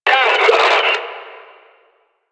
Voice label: Enemy Pain; Warframe; Lasrian